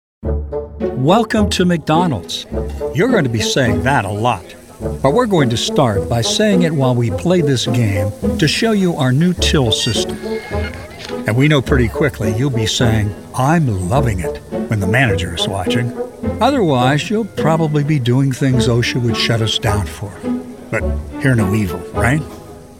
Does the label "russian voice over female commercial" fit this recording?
No